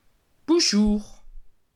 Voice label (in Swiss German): "buschur", alsace